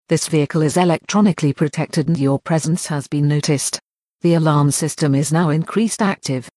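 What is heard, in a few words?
English, camper alarm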